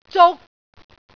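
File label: "zuk3", Cantonese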